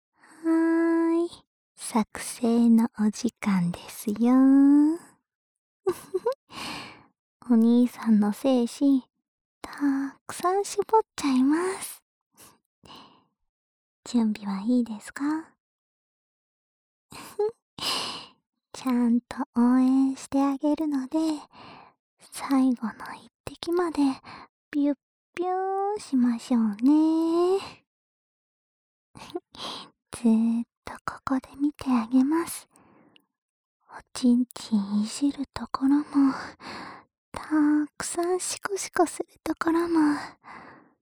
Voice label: 自慰辅助用套弄指示音 左耳

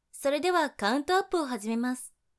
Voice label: 接下来开始计数, 女生为你进行健身计数的语音, 姐姐

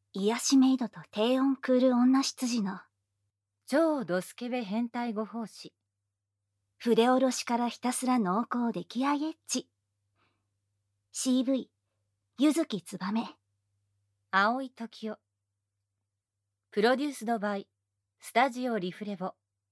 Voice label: 标题朗诵

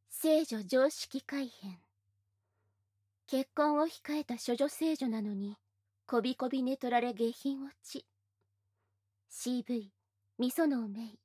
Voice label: 报幕

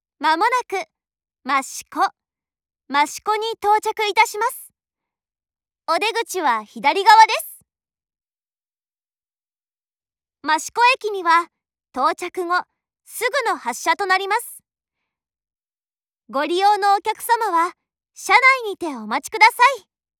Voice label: 益子站抵达前 广播, 车内广播; 万冈铁路车内广播；真冈方向

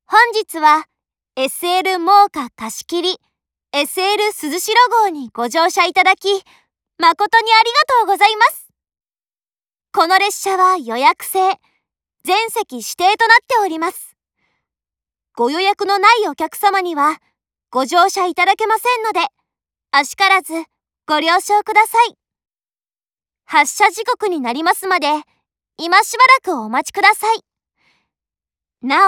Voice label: 茂木站发车前 广播, 车内广播; 万冈铁路车内广播；真冈方向

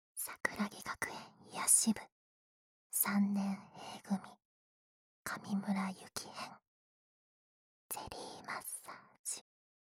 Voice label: 标题朗诵

